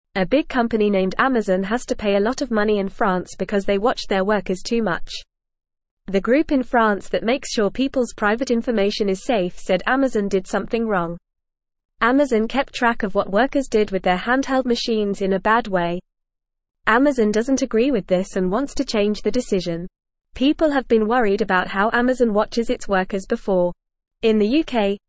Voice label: Lower Intermediate FAST Reading, English